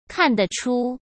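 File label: "看得出", Mandarin Chinese; chinese-flashcards; hsk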